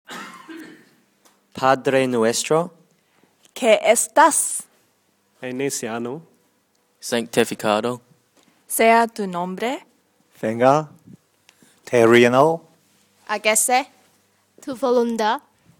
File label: our father spanish